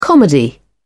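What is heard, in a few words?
"comedy", British English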